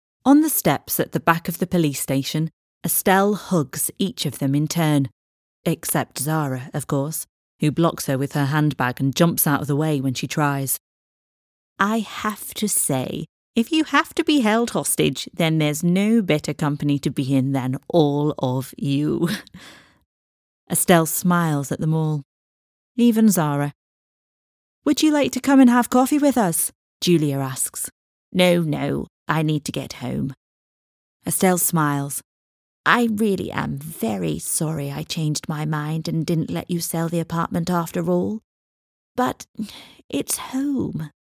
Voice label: Audiobooks

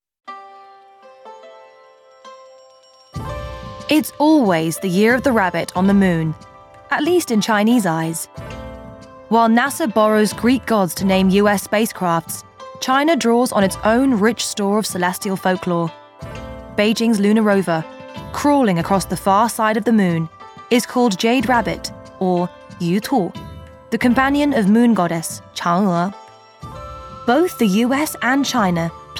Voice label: Documentary

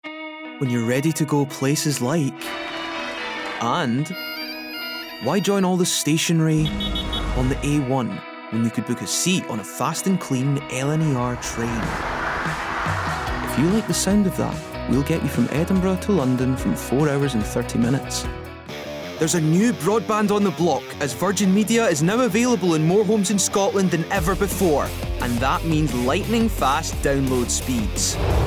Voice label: Commercials Montage

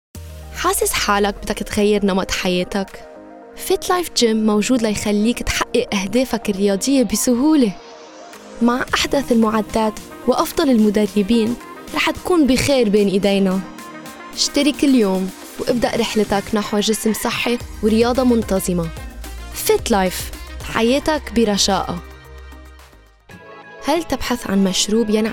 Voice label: Arabic Commercial

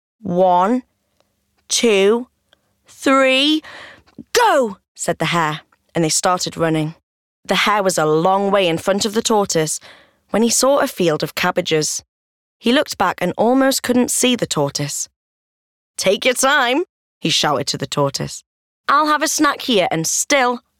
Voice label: Audiobook Excerpt

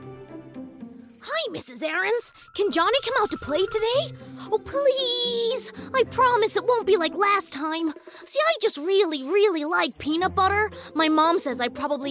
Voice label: Animation

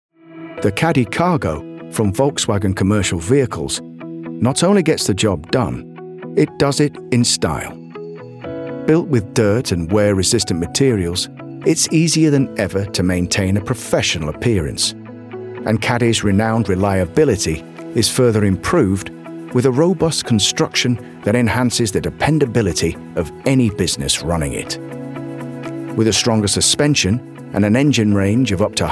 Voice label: Corporate

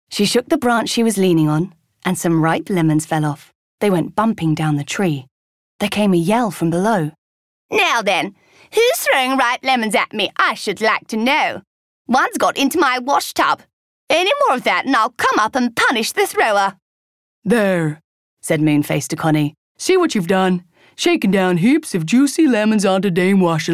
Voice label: audiobook

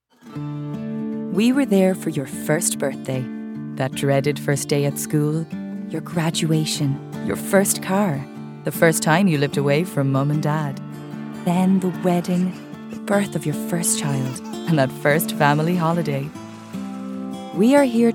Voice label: Commercials

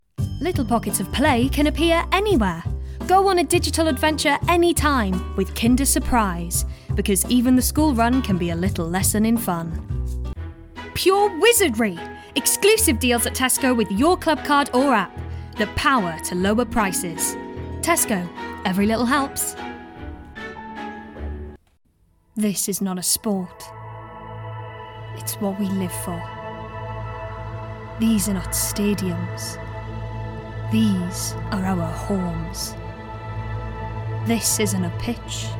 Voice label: Commercial

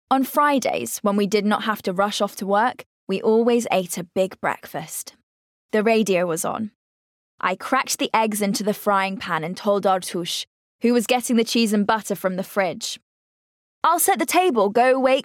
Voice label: Audio Book

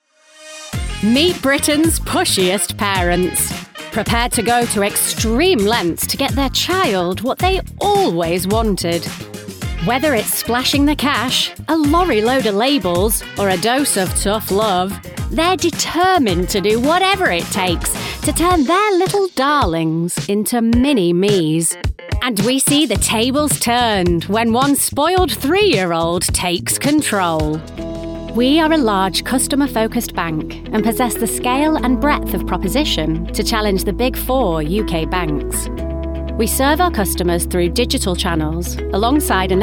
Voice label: Narrative

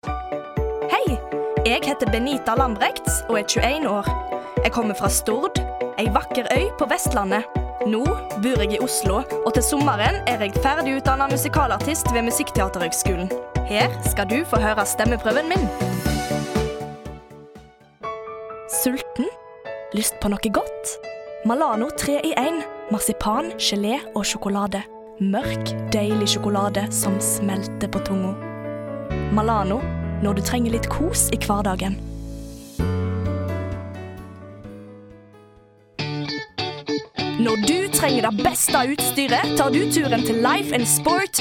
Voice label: Norweigan

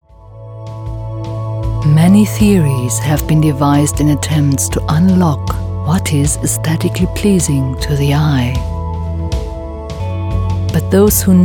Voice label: English Commercials

